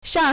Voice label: "shang4", Chinese